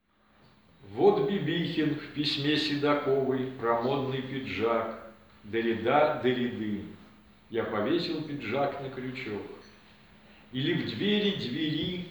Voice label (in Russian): читает несколько стихотворений; Андрей Василевский; из новой книги